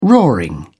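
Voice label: "roaring", British English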